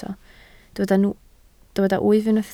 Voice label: "wyf", Welsh

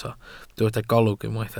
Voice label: "golwg", Welsh

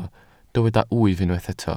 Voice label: "wyf", Welsh

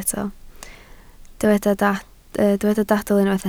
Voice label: "dadl", Welsh

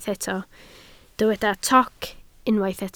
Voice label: "toc", Welsh

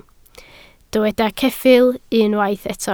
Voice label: "ceffyl", Welsh

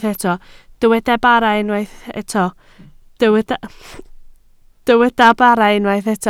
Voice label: "bara", Welsh

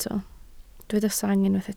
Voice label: "sang", Welsh

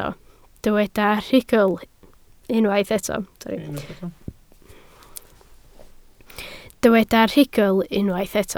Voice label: "rhugl", Welsh

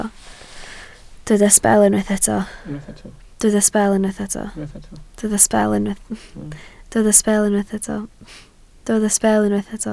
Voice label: "sbel", Welsh

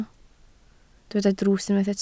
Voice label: "drws", Welsh

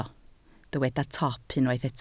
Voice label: "top", Welsh

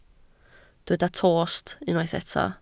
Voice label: "tost", Welsh

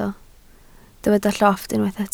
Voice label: "llofft", Welsh

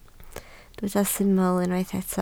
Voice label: "syml", Welsh

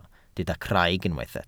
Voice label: "craig", Welsh

